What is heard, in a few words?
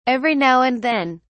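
"every now and then", British English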